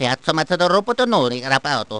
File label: gibberish; luigi